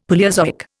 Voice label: "Palaeozoic", Indian; Dictionary